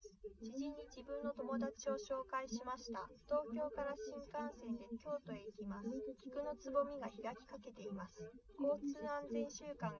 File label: female, live rec; SiSEC08; SiSEC underdetermined